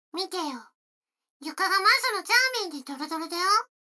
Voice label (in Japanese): 女の子 台詞, ASMR